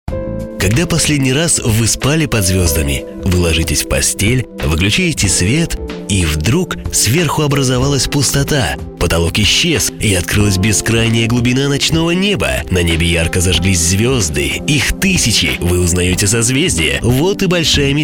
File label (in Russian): Рекламный ролик Кыштым Шансон